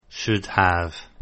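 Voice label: "should have", English; Contractions Pronunciation Practice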